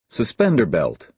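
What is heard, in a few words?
"suspender belt", American English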